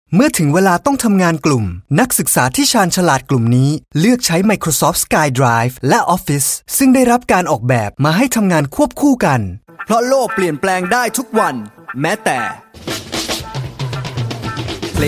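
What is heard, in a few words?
Thai; GoLocalise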